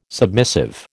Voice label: "submissive", American